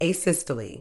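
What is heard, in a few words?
"asystole", British English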